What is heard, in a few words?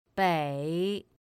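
"běi", Chinese; pinyin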